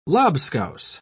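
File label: "lobscouse", English, British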